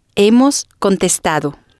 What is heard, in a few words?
"hemos contestado", spanish